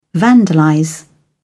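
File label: "vandalize", British English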